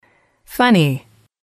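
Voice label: "funny", English, female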